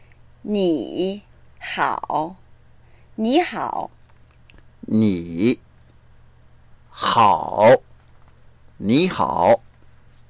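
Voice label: "ni3 hao3", Mandarin Chinese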